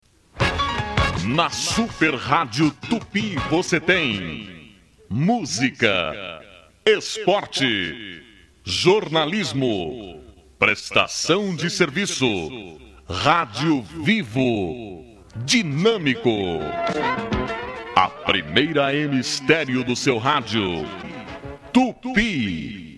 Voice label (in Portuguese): Vinheta; Radio Tupi; 1150 kHz